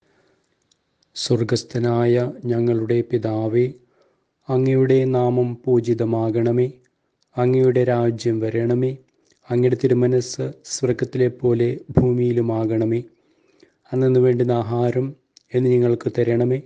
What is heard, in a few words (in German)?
Vaterunser indisch; Vater unser auf indisch; Familienimpuls